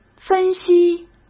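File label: "分析", Mandarin Chinese; chinese flashcards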